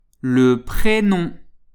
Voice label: "le prénom", French

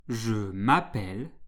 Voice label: "je m'appelle", French

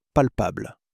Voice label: "palpable", French

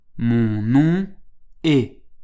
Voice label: "mon nom est", French

